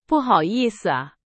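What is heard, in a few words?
Chinese, example sentence; hsk2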